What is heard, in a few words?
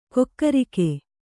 "ಕಕ್ಕರಿಕೆ", Kannada